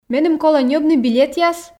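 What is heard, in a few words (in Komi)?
меным колӧ ньӧбны билетъяс, Komi, female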